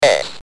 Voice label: "S", UK Speech